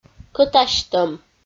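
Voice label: "кӧтасьтӧм", Komi, female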